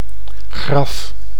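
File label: "graf", Dutch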